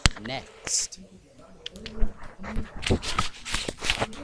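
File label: "rub", spoken word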